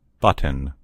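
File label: "button", American English; gcompris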